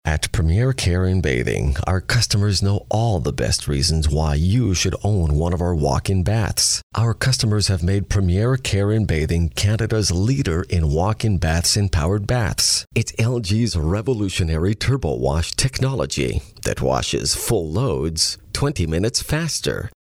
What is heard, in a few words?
male canadian voice over narrative